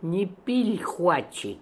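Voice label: "Nipilhooatchi", pronunciations; Fourth Way; Beelzebub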